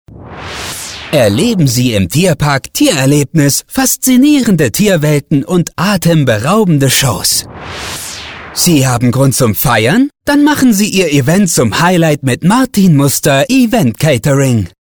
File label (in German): begeistert aktionistisch